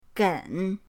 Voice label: "gen3", pinyin